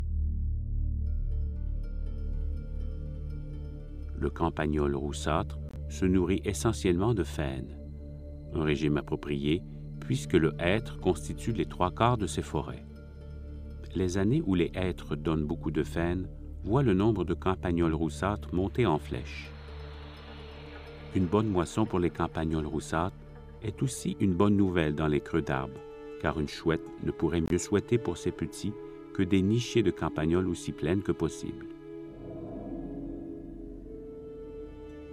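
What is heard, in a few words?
French Canadian, Narration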